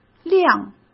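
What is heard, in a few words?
"亮", Mandarin Chinese; chinese-flashcards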